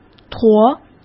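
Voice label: "驮", Mandarin Chinese; chinese-flashcards; hsk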